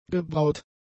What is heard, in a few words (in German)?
"gebraut", German; adjectives